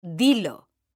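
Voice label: "DILO", Spanish; mekanta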